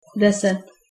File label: ten, czech